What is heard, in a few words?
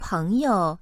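"peng2 you5", Chinese, female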